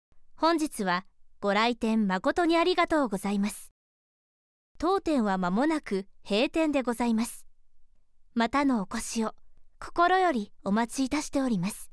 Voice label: japanese ivr